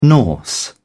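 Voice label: "norse", British English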